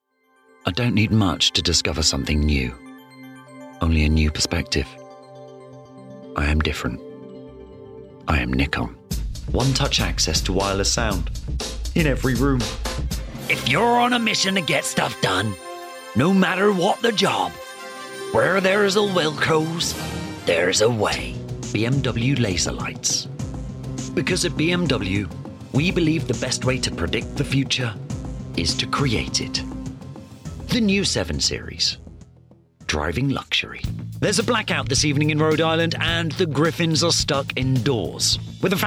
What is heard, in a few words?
Commercial